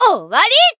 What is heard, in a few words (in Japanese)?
Voice finish, jp